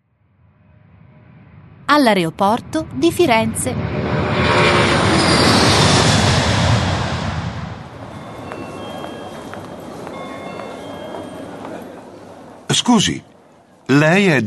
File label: Italian; allTalk